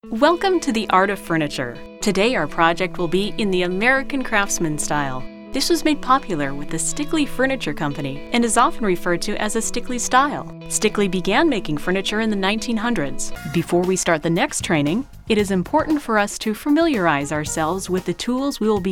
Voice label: E-Learning